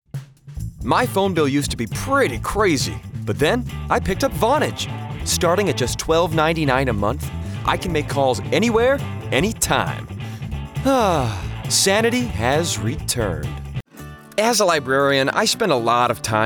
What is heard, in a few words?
commercial